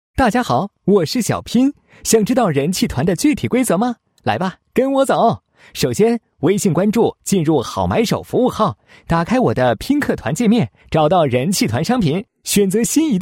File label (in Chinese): 男 自白 平台自白介绍广告; 拼客团